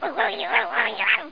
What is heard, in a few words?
MAD